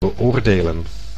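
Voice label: "beoordelen", Dutch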